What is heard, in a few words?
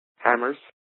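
"hammers" US English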